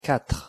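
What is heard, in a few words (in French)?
"quatre" French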